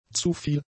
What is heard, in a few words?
"zuviel", German; adjectives